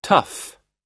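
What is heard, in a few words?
"tough", American English; tough /tʌ́f; 厳しい, 強い,固い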